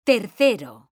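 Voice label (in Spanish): "tercero", Spanish, female; mekanta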